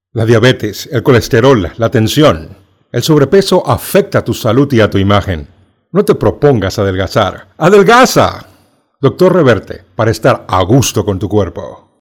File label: locutor panama panamanian voiceover; locutor de Panamá